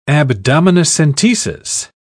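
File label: "abdominocentesis", British English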